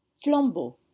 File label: "flambeau", French